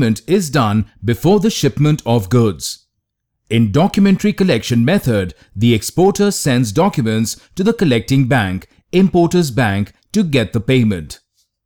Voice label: eLearning Finance